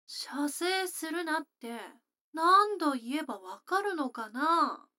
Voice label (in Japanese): 女の子 台詞